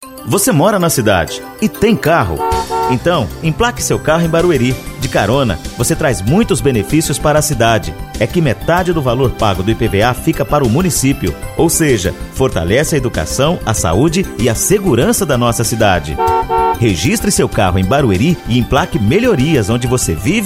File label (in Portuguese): spot; Ipva Barueri